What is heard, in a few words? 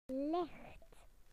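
"light", British English; PictureDictionary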